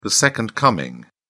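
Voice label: "second coming", British English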